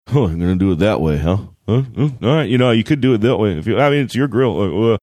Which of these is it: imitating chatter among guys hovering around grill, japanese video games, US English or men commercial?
imitating chatter among guys hovering around grill